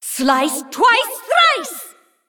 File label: Female Shivarra Attack